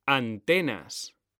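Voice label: "antenas", Spanish, male; mekanta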